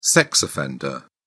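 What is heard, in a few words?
"sex offender", British English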